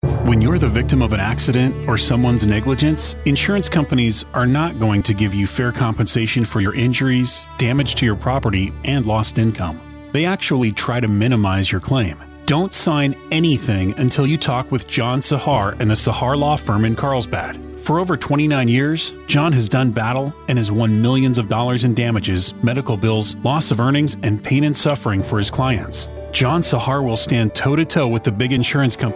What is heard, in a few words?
Commercial